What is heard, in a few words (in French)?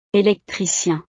"electricien", frances; profesiones